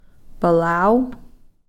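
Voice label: "palaw", Koasati word for "youthful"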